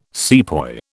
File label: "sepoy", British